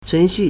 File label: "cun2 xu4", Chinese